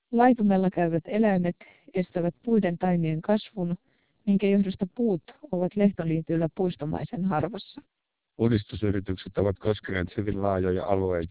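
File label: twelp 1600 Finnish speech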